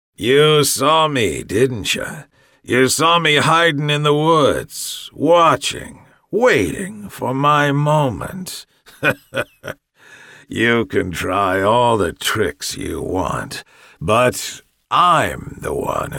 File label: Game Character Evil Psycho